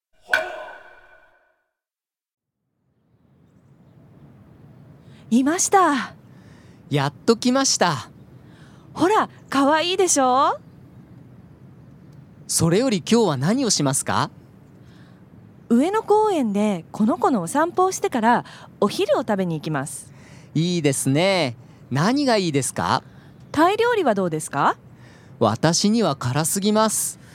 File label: dialog; JapanesePod101; Beginner Season 1